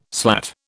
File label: "slat", British